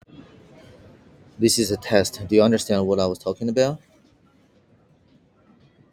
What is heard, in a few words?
voice message